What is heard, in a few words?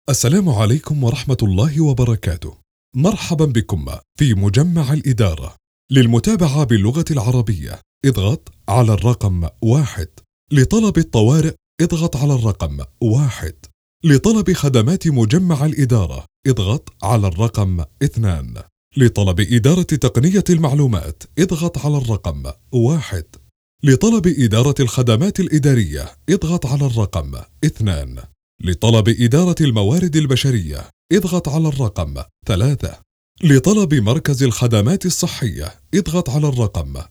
Arabic Recording; ISDB